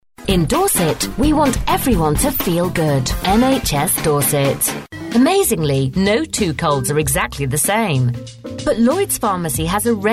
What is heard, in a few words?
local commercials